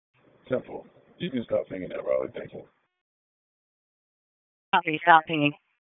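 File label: Police Northeast District Dispatch, p25; Raleigh; wake p25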